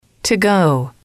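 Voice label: "to go", English, female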